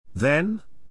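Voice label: "then", English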